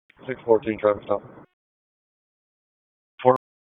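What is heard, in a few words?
Police Southwest District Dispatch; Raleigh; wake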